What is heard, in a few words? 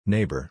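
"neighbor", English; 隣人、近所の人; néibɚ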